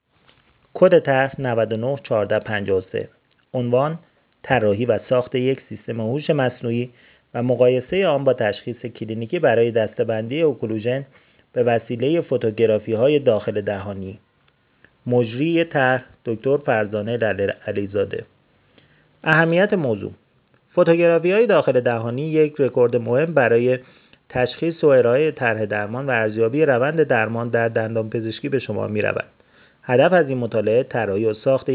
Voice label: voice recorder; tarjoman